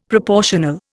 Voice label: "proportional", Indian; Dictionary